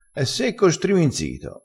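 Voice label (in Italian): "striminzito", vocabolario dialettale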